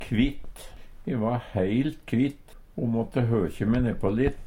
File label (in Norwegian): kvitt, Numedalsmål; E vart heilt kvitt, o måtte høkje me nepå litt.; Adjektiv